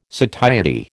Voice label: "satiety", American

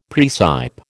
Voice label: "praecipe", American

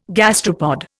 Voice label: "gastropod", Indian

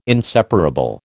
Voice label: "inseparable", American

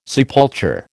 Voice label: "sepulture", American

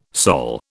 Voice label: "Sol", British

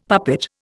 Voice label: "puppet", Indian; Dictionary

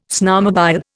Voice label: "snowmobile", Indian

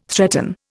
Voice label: "threaten", Indian

